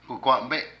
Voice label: Respirar, Zapoteco de Petapa; Cuerpo; Vocabulary

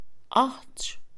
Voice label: hermano mayor, Mixe, Mujer; Parentesco; INALI

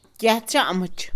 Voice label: no maduro, Mixe, Mujer; Comida; INALI

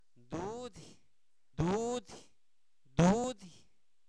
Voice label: Zapoteco Albarradas, santo domingo albarradas; INALI; cuerpo; seno

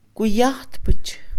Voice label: deportes, Mixe, Mujer; INALI

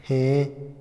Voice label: vidrio, Otomí, hombre; INALI

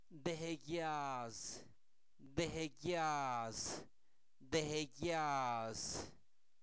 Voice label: Zapoteco Albarradas, santo domingo albarradas; alimentos; pinole; INALI

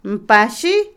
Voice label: Otomí, mujer; Acciones; barrer; INALI

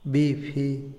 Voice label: humo, Otomí, hombre; INALI

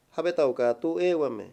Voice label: "maldecir", Mayo; Religón y creencias

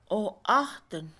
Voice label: toser, Mixe, Mujer; Cuerpo; INALI

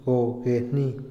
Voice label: "si afirmativo", Otomí, hombre; INALI

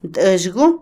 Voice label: "teléfono", Otomí, mujer; Moderno; Vocabulary; INALI

